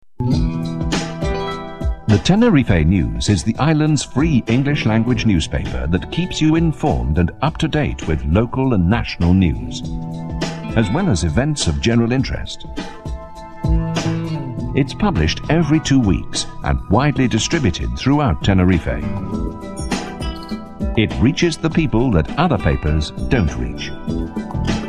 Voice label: ads; tenerife news